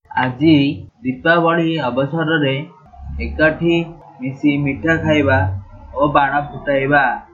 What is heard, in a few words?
celebrate diwali, odia